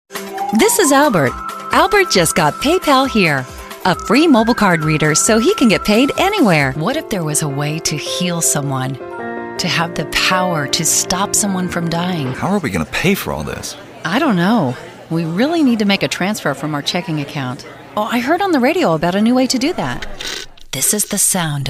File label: Commercial